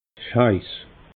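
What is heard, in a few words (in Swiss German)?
"heiss", Alsatian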